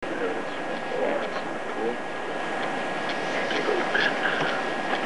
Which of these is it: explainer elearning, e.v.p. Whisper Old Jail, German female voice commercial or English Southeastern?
e.v.p. Whisper Old Jail